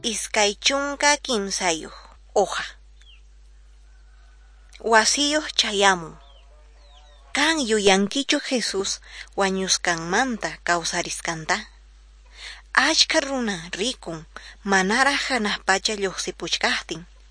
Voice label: jesus lord and saviour quechua norte de bolivia apolo